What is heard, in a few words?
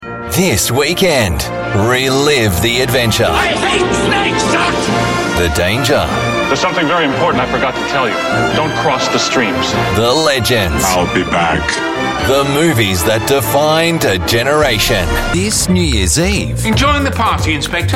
AU English TV Imaging